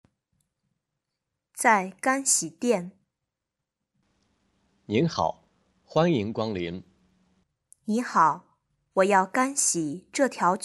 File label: chinese reading